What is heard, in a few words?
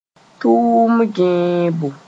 "tʋʋm gẽebo", moore; strike